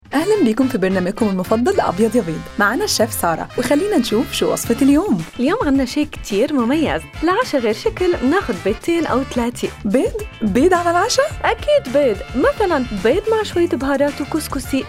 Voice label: Arabic Spot; EggFarmer; Eggs AR; EFC